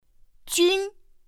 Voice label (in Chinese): "jūn", pinyin; pinyin2; pinyinji